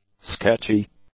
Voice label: "sketchy", English; adjectives

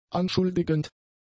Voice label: "anschuldigend", German; adjectives

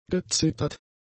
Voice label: "gezittert", German; adjectives

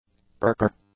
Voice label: "percher", English; nouns

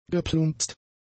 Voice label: "geplumpst", German; adjectives

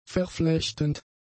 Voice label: "verflechtend", German; adjectives

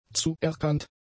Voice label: "zuerkannt", German; adjectives

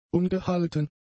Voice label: "ungehalten", German; adjectives

